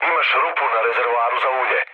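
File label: Serbian damaged oil mood low, speech; War Thunder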